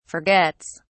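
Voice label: "forgets", British English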